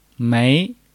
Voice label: "沒", Chinese